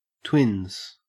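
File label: "twins", Australian English; pronunciations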